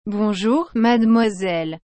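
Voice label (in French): "Bonjour Mademoiselle", French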